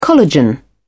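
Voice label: "collagen", British English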